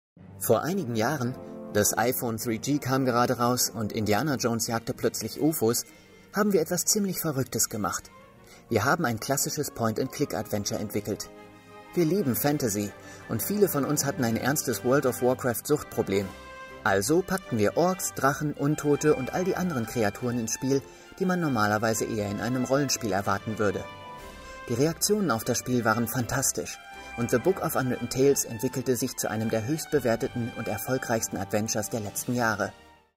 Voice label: doku

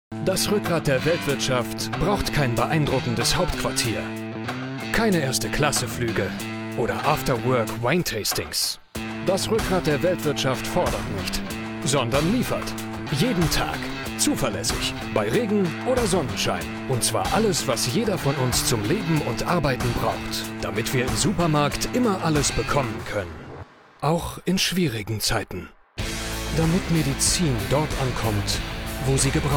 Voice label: imagefilm maut; dkv

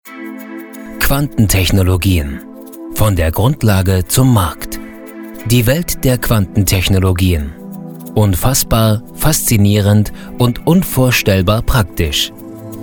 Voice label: doku

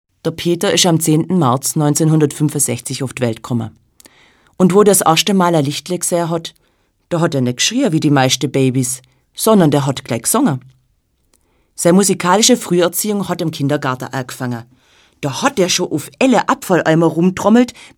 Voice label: schwaebisch dschdory vom peder

